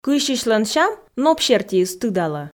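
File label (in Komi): Komi, кыйсьысьлӧн сям ноп сертиыс тыдалӧ, female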